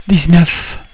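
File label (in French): "dix-neuf", French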